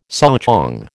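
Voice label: "souchong", American English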